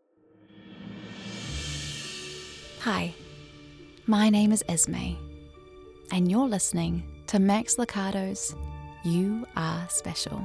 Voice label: Storytelling; You Are Special